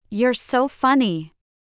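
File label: Youre so funny, English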